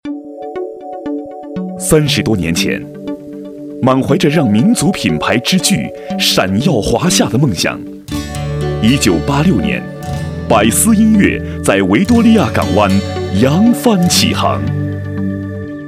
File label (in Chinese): 男 专题; 柏斯音乐集团